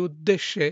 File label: Male